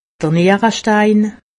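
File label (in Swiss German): "nierestein", Haut Rhin; medecine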